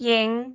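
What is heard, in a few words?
"jing5", Cantonese; microsoftHiuMaan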